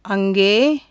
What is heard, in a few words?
"there", Tamil consonants; JIPA